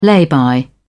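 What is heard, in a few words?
"lay-by", British English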